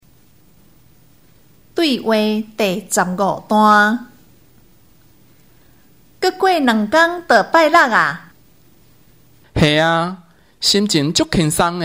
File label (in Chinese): 對話, 閩南語; 閩南語語言能力認證; 閩南語能力測驗題本